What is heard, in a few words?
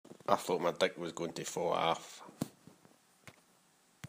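"Aff", slang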